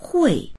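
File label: "hui4", Mandarin Chinese; 会; ～できる（能力の有無）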